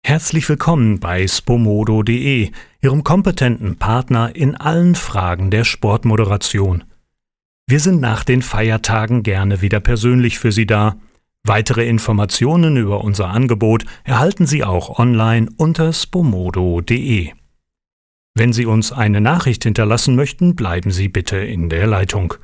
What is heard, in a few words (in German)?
Telefonansage Deutsch